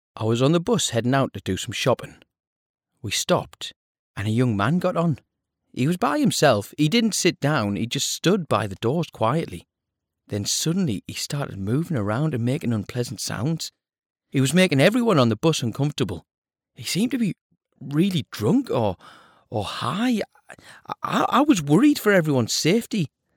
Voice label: male british voice over character, english british